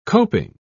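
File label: "coping", British English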